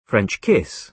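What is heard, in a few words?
"french kiss", British English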